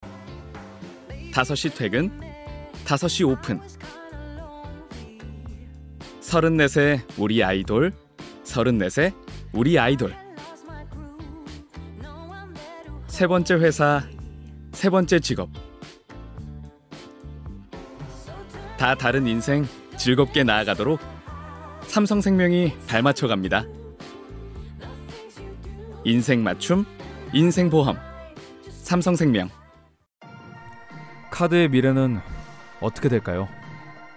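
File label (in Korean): 여성 (Female) 광고 나레이션 ARS 캐릭터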